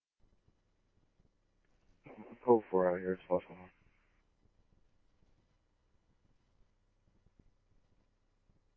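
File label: scanner, Phoenix Police Department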